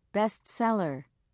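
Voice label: "best seller", British English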